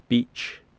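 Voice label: "beech", English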